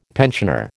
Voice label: "pensioner", American